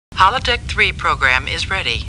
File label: Star Trek Computer Voice; StarTrekLCAR